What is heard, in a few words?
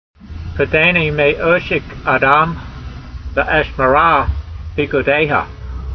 voice, hebrew language; Psalm 119:134; pedaynee may'osh'ek adam, ve'eshmera peekoodeyha